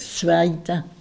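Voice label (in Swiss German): "zweita", Alsatian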